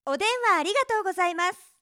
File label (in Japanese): お電話ありがとうございます, 働く女の子